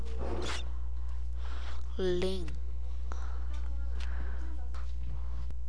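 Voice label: "ring", spoken word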